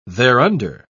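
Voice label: "thereunder", British English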